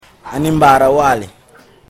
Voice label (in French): Soninké; les langues au senegal